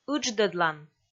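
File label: "ыдждӧдлан", Komi, female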